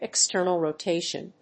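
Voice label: "external-rotation", English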